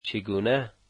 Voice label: how, Dari